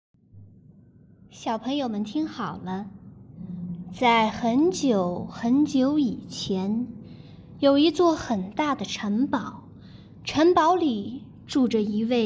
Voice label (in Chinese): 讲童话